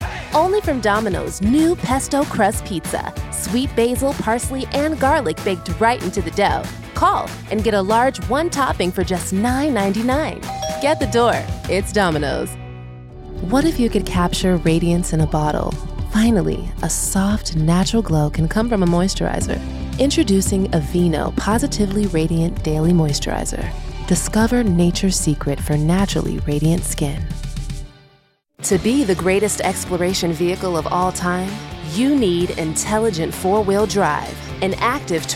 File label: Commercial, Female voices